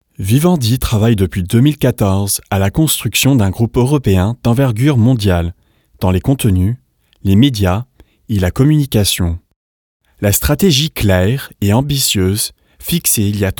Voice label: french corporate